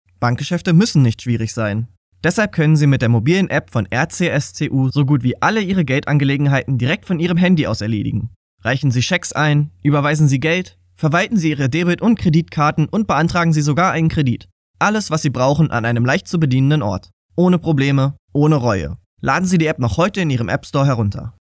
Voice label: Sincere